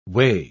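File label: "-way", British English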